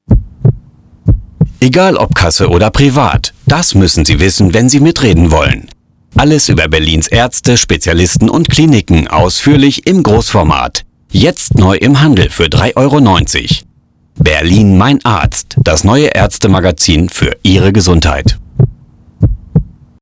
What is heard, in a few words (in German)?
Medien Radiowerbung